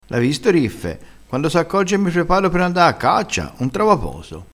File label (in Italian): "poso", crespinese